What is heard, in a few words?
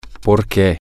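because, spanish, female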